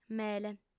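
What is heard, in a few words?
"mele", female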